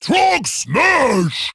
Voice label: Male Trogg Attack

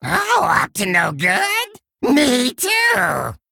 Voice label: Male Kobold Play

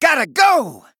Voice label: Male Blood Elf Attack

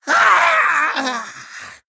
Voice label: Male Kobold Death

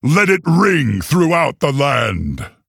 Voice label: Male Orc MIRROR START

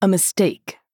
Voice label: Female Night Elf Oops

